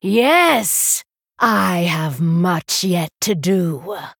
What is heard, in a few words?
Female Night Elf; Lady Anacondra